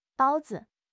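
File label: "bao1 zi5", Chinese; HSK4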